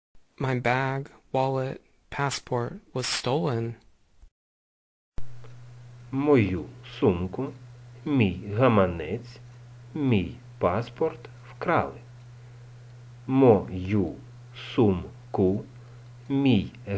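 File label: My bag passport wallet was stolen, Ukrainian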